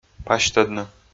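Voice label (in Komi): "пасьтӧдны", Komi, male; audio dict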